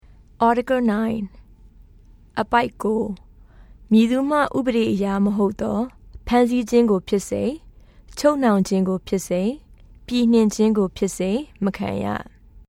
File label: Burmese